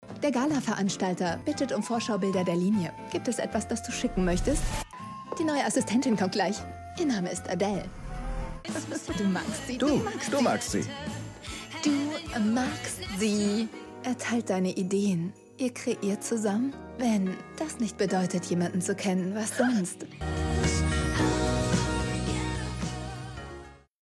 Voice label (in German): synchron, videospiele; weihnachtsprinzessin